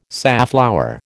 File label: "safflower", American